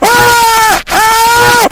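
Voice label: sniper pain critical death; voice packs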